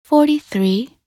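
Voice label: "43", English; minute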